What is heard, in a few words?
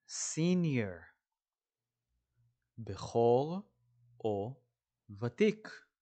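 "senior" English, "בכור ותיק" Hebrew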